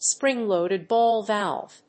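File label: "spring loaded ball valve", English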